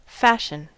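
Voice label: "fashion", American English; pronunciations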